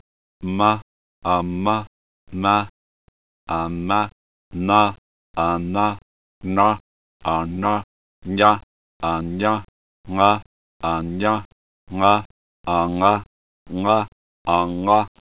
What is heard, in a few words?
nasal